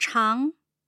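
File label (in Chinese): "chang2", Mandarin; tough sounds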